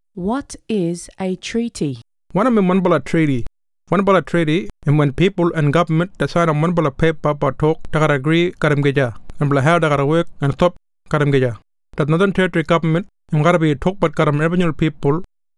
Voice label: Kriol; WHAT IS A TREATY